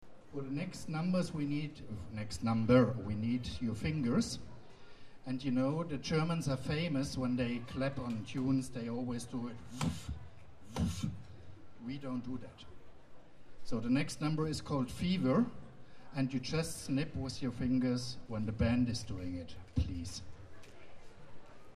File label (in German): Ansage